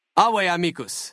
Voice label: male adult default dialogue greeting; Fnv